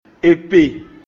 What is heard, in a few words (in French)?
"Année", Mina; Èpè